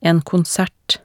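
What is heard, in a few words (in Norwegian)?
"en konsert", Norwegian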